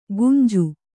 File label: "ಕುಂಜು", Kannada